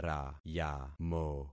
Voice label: "rayamo", concat syllables; Affixing experiment